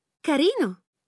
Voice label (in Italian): lang it it, voiceovers